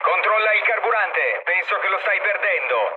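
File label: Italian tank damaged mood low, speech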